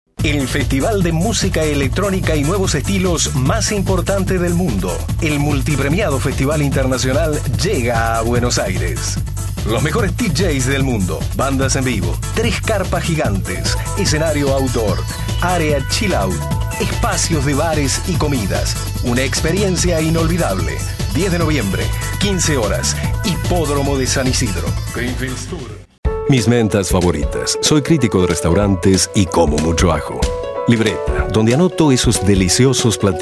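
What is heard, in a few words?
Latin American Spanish, commercial; GoLocalise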